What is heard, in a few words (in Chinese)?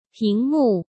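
"屏幕", Mandarin; chinese-flashcards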